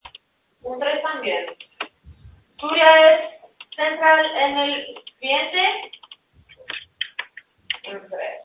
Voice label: call recording